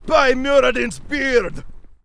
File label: Gryphon rider yes attack, en